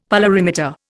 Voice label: "polarimeter", Indian; Dictionary